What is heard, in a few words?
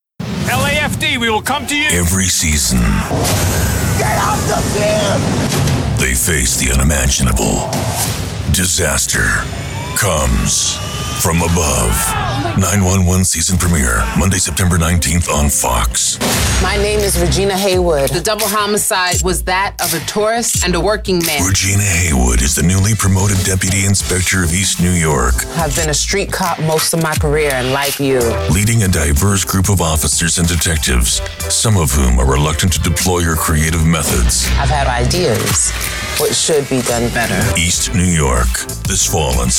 National TV Promos